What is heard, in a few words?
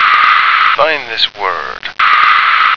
"Find This Word", American